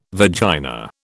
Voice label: "vagina", British